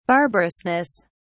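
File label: "barbarousness", British English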